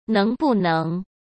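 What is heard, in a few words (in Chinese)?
"能不能", Mandarin Chinese; hsk; chinese-flashcards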